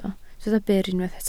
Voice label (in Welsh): "byr" Welsh